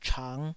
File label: "chang5", taiwanese